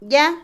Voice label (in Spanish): pus, Otomí, mujer; Cuerpo; INALI